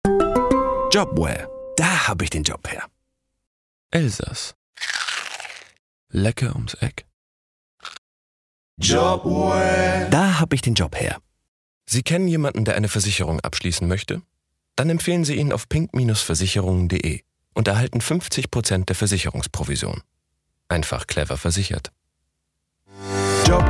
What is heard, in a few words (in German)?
Werbung Medley